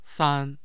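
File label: "san1", Mandarin Chinese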